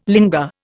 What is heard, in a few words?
"linga", Indian